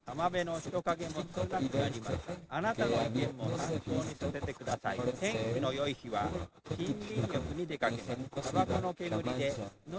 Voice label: male inst src; SiSEC08; SiSEC underdetermined